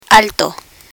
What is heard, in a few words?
"alto", Spanish